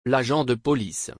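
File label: "L'agent de police", French; L'agent de police (m.); پلیس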